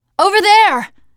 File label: look, teen girl; player; survivor; voice